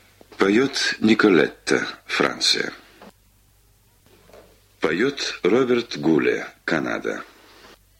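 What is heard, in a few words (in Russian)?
golos diktora, announcer's voice, male; Радио "Маяк"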